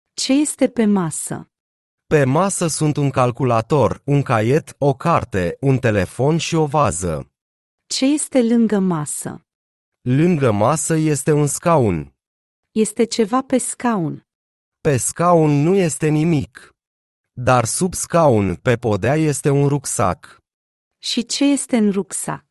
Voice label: dialog